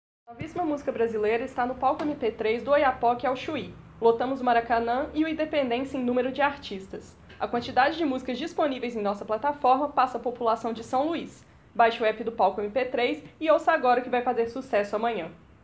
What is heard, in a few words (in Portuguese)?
locução